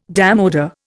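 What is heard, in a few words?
"démodé", Indian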